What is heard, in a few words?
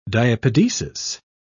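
"diapedesis", British English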